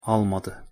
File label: "almadi", Turkish